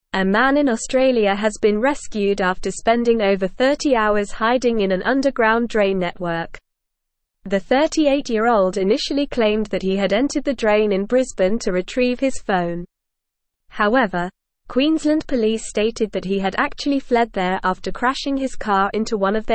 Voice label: English Newsroom Advanced NORMAL Reading; Man Rescued from Underground Drain After Fleeing Car Crash

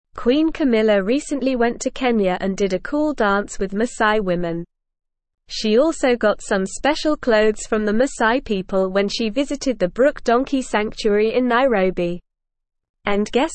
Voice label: English Upper Intermediate NORMAL Reading; Queen Camillas Kenya Visit Cultural Engagement an; English Newsroom

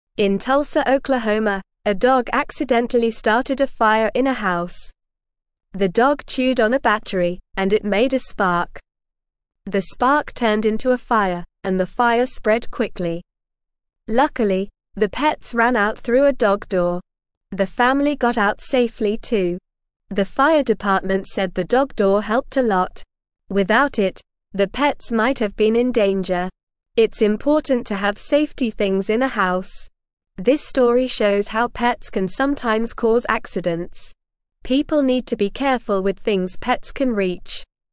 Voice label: English Beginner NORMAL Reading; Dog Starts Fire But Everyone Gets Out Safe

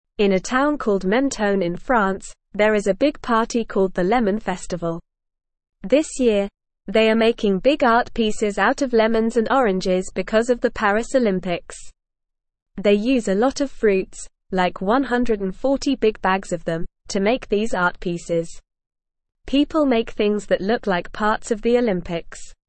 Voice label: English NORMAL Reading; Big Lemon Festival Celebrates Paris Olympics with Fruit Art

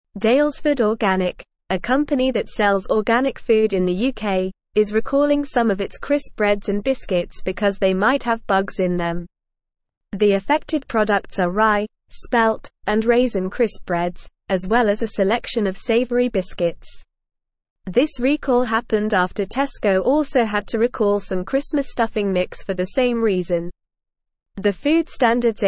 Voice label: English NORMAL Reading; English Newsroom; Daylesford Organic Issues Recall for Moth Larvae

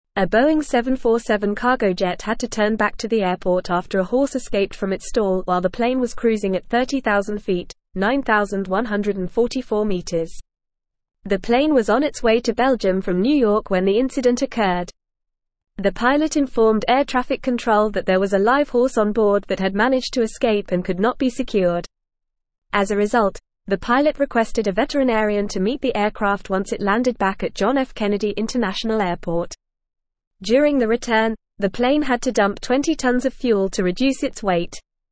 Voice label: English Newsroom Advanced FAST Reading; Horse chaos forces cargo jet to turn back

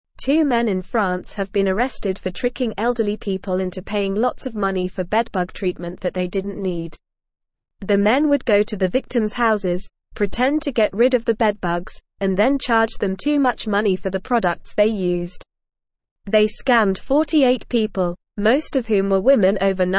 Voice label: Upper Intermediate NORMAL Reading, English; English Newsroom; Men arrested for scamming elderly in France with fake bedbug treatments; Two men in France have been arrested for tricking elderly people into paying lots of money

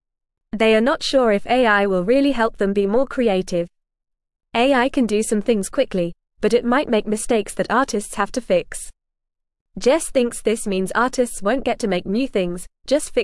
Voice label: English Newsroom Beginner FAST Reading; Game Makers Worry About AI Taking Their Jobs

